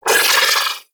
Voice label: Vocal Pain; Warframe; Sentients; Battalyst

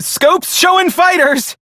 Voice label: Crew Gun Spot Fighter, Male; Dialog; Warframe; Railjack Crew; Perrin Sequence

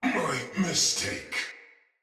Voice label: Damage Low Chance, Barks Battle Soldier; Sinecure Soldier

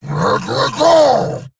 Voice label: Attack, en; Warframe; Eidolon Grineer; Battle Barks

